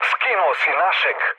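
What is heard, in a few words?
Serbian player killed ally mood low; War Thunder; speech srb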